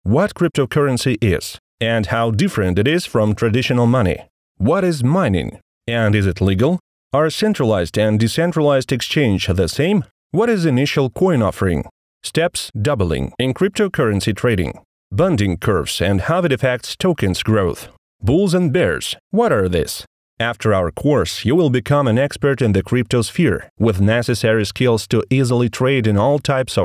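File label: lang anglijskij, English language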